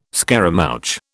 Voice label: "scaramouch", British